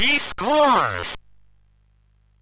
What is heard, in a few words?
MOS6581R2, Electronic Speech Systems; Slapshot